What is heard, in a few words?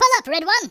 "stupid", speech; Biggles